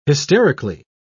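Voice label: "hysterically", British English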